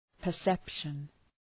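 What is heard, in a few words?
"perception", English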